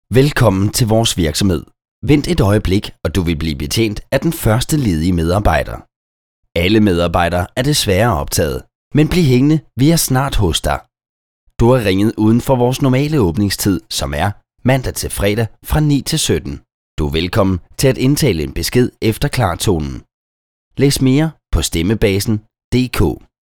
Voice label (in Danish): DK UFORMEL MAND; Stemmebasen